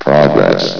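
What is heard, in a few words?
"progress", male synthesized announcer voice; blizzard; hlvox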